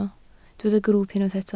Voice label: "grwwp", Welsh